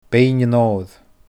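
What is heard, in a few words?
"Beinn an Òir", Scottish Gaelic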